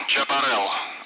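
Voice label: Speech Sound Russian Common Allied Flight; AirDefence; Chaparral; DCS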